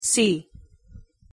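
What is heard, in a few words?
"c" pronunciation English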